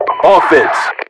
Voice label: offense, US voiceovers

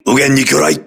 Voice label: voice message torpedo right, crew ships japanese

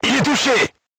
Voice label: voice message direct hit, crew tanks french

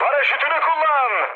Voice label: bailout, mood high, speech tur; War Thunder

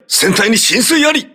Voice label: hull breach, voice message, crew ships japanese

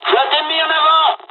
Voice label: dialog, dialogs french; War Thunder; midway

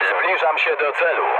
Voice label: near waypoint mood low, speech pol; War Thunder

